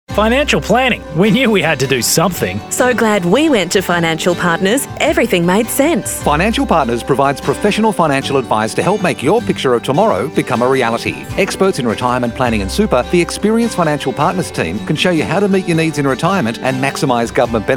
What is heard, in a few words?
RADIO Station ad; Financial Partners